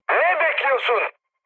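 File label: attack position, mood high, Turkish; speech; War Thunder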